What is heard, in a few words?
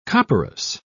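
"copperas", British English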